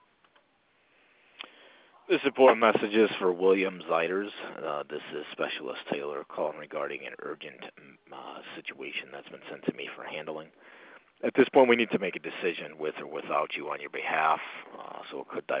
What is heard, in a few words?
Fake Phone Calls